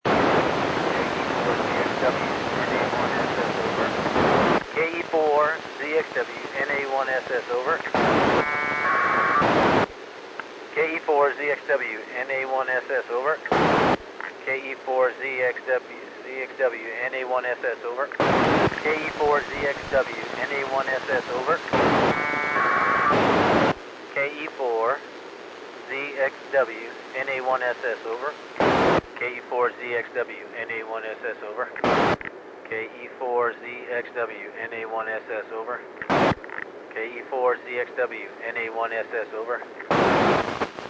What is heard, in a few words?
145 800 MHz, QSO, School Contact; Joe Acaba (NA1SS) Calling VA Air & Space Center 26 Jun 2012